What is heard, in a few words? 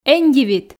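эн дивит, Komi, female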